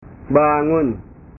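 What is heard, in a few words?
"bangun", Indonesian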